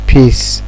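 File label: "piss", Nigerian Pidgin, male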